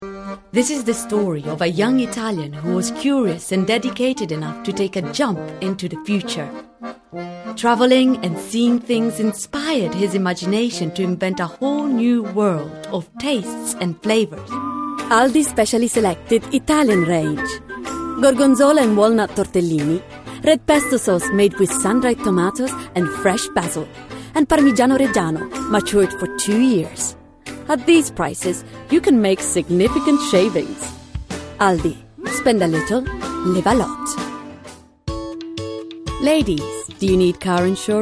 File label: Commercial English